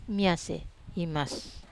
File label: "mas", Wamesa